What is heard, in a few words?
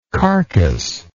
"carcas", English